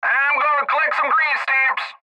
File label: Cb Trucker Radio; Radio Voice; Blastwave